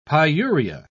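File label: "pyuria", British English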